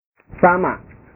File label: "sama", bahasa